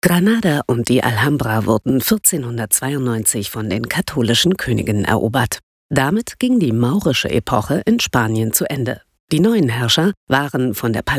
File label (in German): Doku; Granada